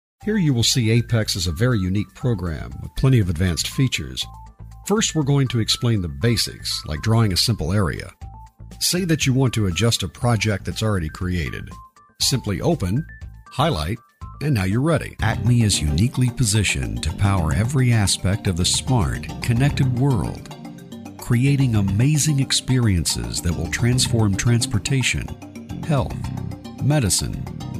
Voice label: Explainer E-Learning